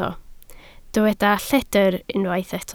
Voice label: "lledr", Welsh